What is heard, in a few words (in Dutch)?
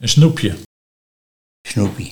"Snoepïe", Nieuw lekkerlands dialect; snoepje